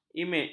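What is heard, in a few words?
"imej", Malay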